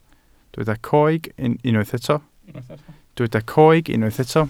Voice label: "coeg", Welsh